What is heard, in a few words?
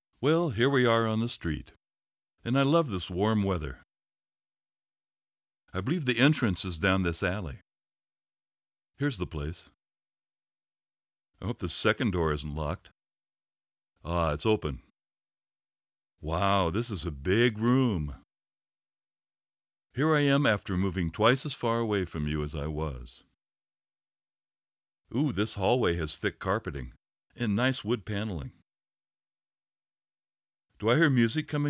Dialog, narrations